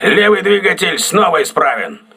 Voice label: voice message left engine repaired, crew ships russian